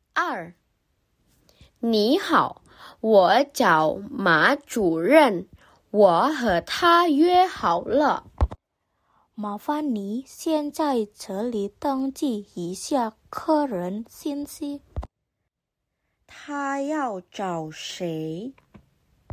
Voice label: Mandarin; mandarin placement test hsk5